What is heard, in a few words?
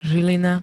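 "Žilina", Slovak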